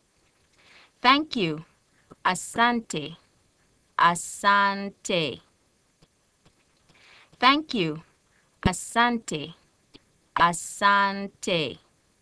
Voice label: Swahili Thanks